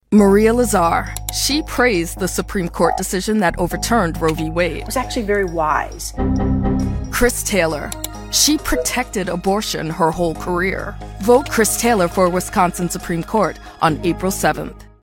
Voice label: Abortion Extremism, voiceover for political attack ad; WSSC